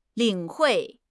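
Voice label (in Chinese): "领会", Chinese; HSK6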